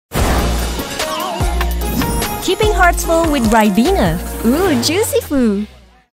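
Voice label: ENGLISH - COMMERCIAL Bubbly, Cheerful, Cute, Happy; ENGLISH - COMMERCIAL (Ribena) Bubbly, Cheerful, Cute, Happy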